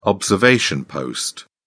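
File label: "observation post", British English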